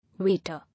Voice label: "Waiter", English

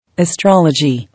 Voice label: "Astrology", English

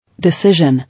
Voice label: "Decision", English

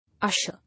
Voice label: "Usher", English

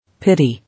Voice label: "Pity", English

